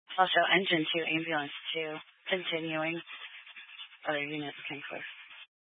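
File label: Incident Tactical 1, Scanner audio recording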